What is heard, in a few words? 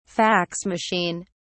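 "fax machine", British English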